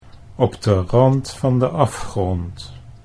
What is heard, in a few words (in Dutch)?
"op de rand van de afgrond", Dutch